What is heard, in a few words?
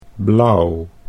"blauw", Dutch